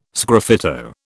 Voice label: "sgraffito", British